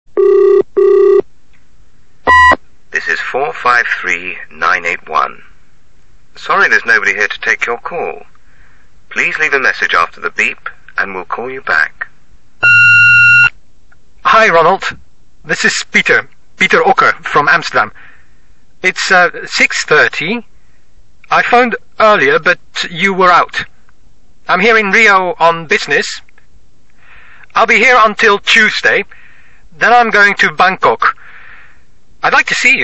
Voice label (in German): Telefon; icao